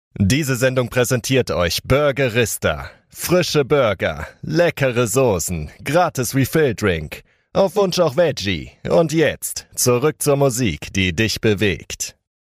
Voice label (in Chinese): 德语男声